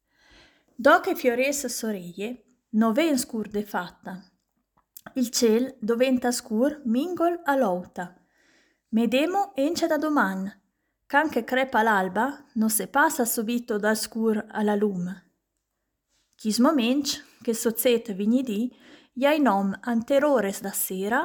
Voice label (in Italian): lingua ladina; anterores